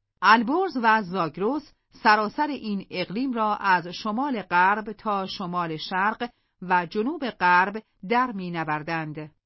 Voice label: female real